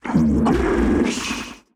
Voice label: Automaton Alert Line; NPC; Heist Robot Spotted